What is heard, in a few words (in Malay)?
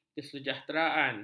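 "kesejahteraan", Malay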